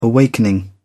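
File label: "awakening", British English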